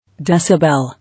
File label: "Decibel", English